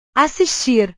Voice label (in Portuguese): "assistir", Portuguese